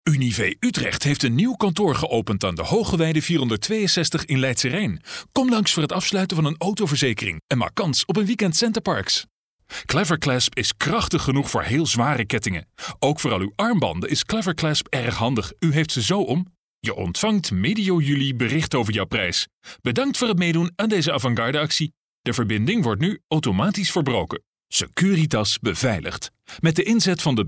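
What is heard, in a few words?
dutch male narration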